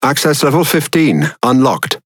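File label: Speech Access Level, British English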